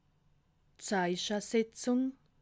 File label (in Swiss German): "zeichesetzung", alsace